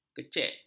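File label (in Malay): "kecek", Malay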